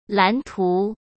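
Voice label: "蓝图", Mandarin Chinese; chinese flashcards; hsk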